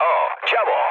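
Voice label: damaged aa, mood low, Polish speech; War Thunder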